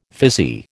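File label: "fizzy", American English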